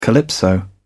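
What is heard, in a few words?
"calypso", British English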